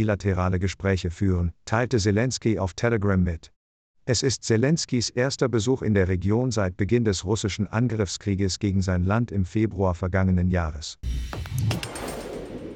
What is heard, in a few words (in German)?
nachrichten update beispiel